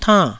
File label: "tann4", Taiwanese